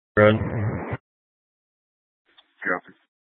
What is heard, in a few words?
Sheriff Dispatch; Mesa County